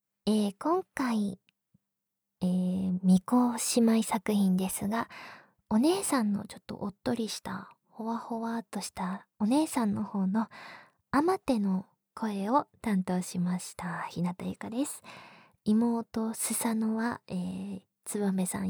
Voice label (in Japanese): フリートーク